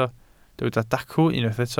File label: "dacw", Welsh